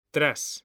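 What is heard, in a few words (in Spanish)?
"tras", Spanish, male; mekanta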